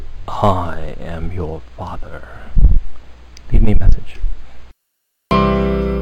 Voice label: Greeting, Ans Machine